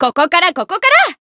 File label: Voice growth jp